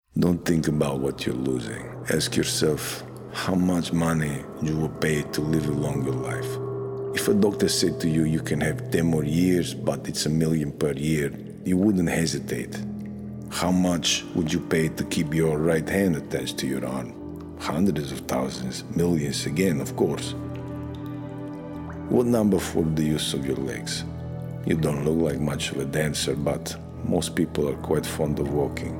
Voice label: Russian Mafia